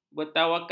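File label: "bertawakal", Malay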